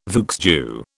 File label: "vieux jeu", British